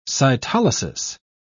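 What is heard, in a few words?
"cytolysis", British English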